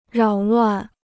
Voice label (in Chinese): "扰乱", Chinese